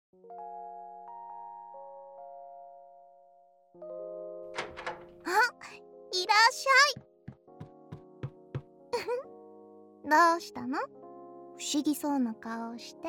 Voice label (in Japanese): DOKIDOKI添い寝ボイス; フランドール・スカーレットのDOKIDOKIディスク